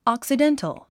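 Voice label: "occidental", English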